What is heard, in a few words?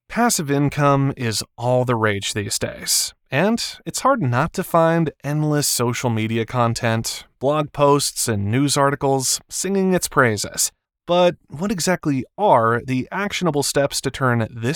Audiobook